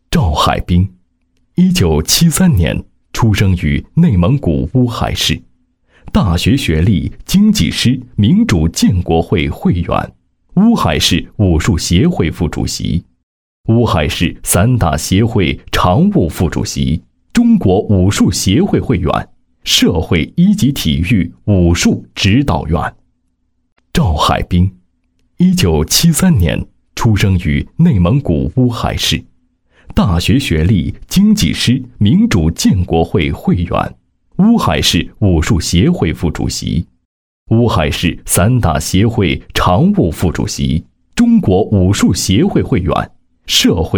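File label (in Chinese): 国语 男声 专题片 人物解说 成熟稳重 大气浑厚磁性 沉稳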